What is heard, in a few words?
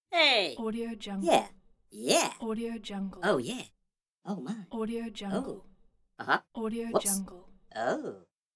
cartoon character voice